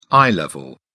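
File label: "eye level", British English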